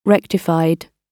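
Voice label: "rectified", British English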